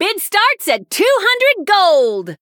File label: Female Human Play